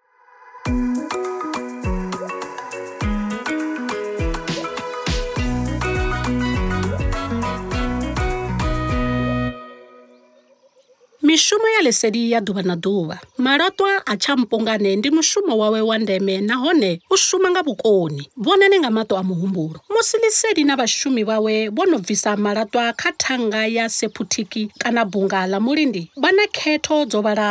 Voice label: Service Providers Treatment TshiVenda; Sanitation; Treatment Tshivenda Soundbites